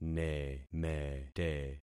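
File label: "nemete", concatenated syllables; Affixing experiment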